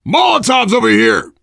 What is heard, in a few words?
spot grenades, voice, biker; Left 4 Dead 2; survivor